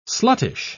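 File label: "sluttish", English, British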